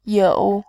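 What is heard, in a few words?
"you", Mandarin Chinese, tone 3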